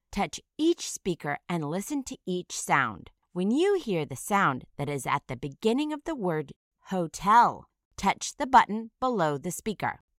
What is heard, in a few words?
"hotel", initial phoneme isolation